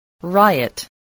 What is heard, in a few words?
"riot", English spelling